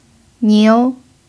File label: "niu2", Chinese